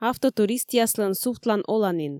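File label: "автотуристъяслӧн сувтлан-оланін", Komi, female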